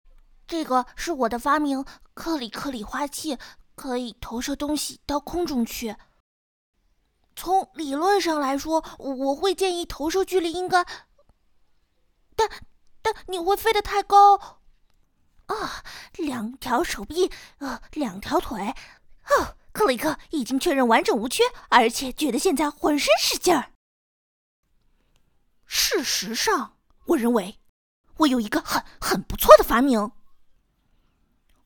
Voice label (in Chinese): 小男孩动漫